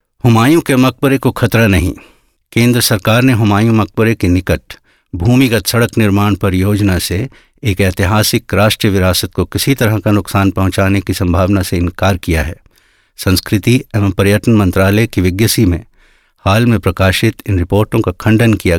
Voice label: Indien M1, voiceactors

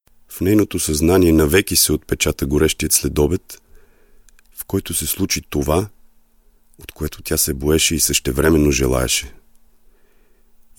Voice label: Bulgarien M3

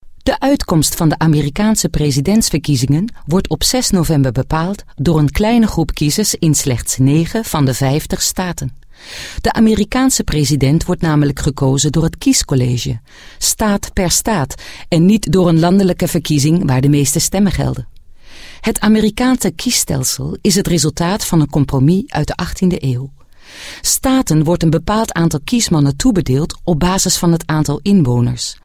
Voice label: Niederlande W4, voiceactors

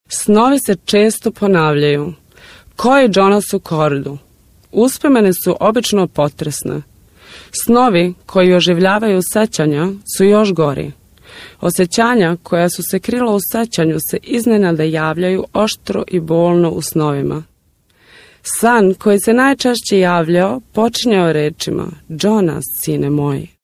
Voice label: Serbien W2, voiceactors

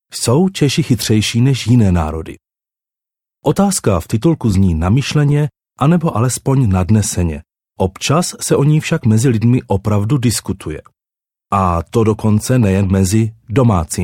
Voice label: Tschechien M

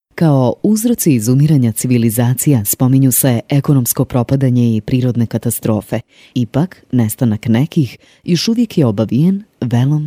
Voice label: Kroatien W

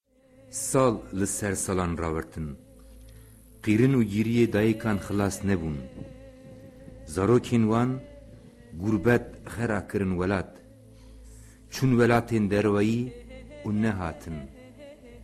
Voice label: Kurdistan M

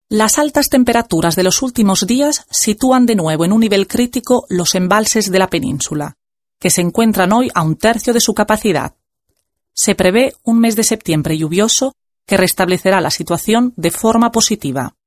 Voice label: Spanien W

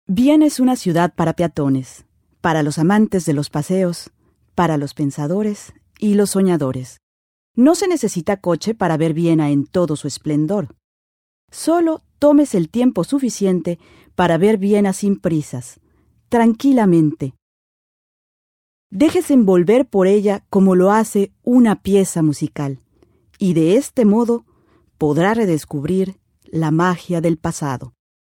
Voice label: Mexico W

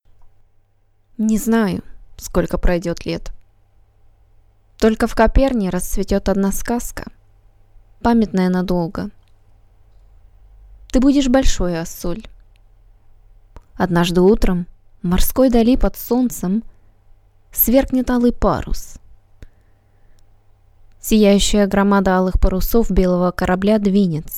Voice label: Russland W

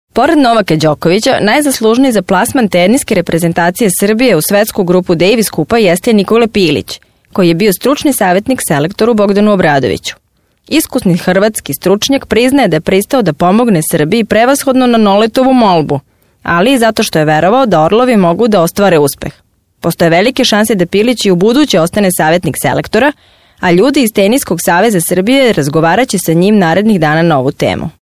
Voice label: Serbien W

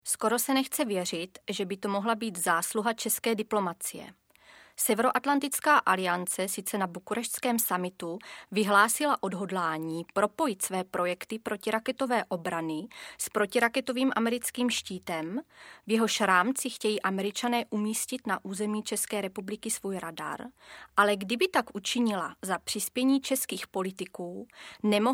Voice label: Tschechien W

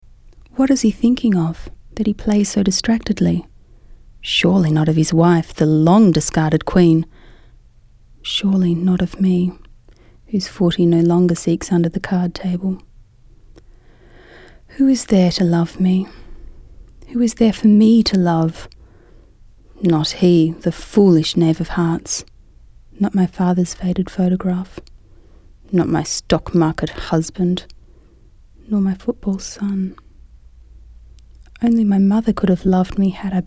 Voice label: Australien W

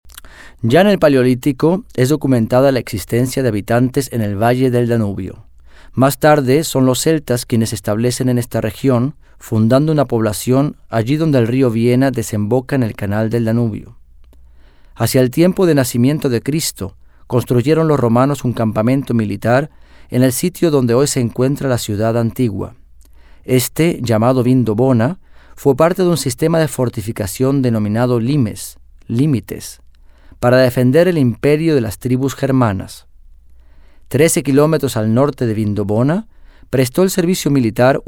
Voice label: Uruguay M

